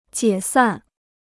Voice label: "解散", Chinese

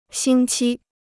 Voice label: "星期", Chinese

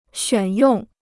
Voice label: "选用", Chinese

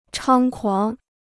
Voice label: "猖狂", Chinese

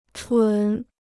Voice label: "蠢", Chinese

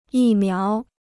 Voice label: "疫苗", Chinese

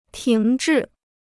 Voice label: "停滞", Chinese

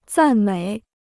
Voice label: "赞美", Chinese

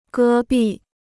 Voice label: "戈壁", Chinese

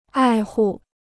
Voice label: "爱护", Chinese

